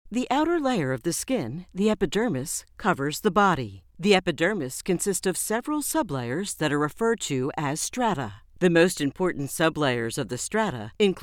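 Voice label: narration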